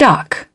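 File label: "duck", British English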